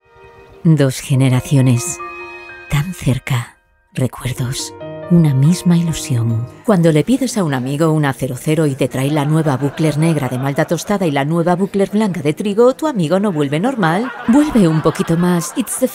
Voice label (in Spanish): PUBLICIDAD, mujeres 35 a 50